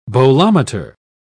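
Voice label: "bolometer", British English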